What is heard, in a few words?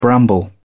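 "bramble", British English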